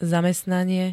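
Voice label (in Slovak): "zamestnanie", Slovenské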